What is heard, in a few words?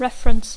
"reference", English